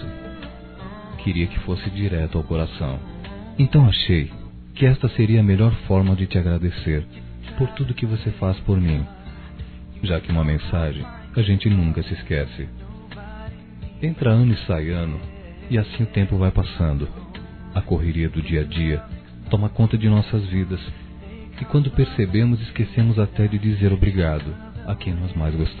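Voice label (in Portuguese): padrinho masculino, telemensagens dia dos pais; Telemensagens 2017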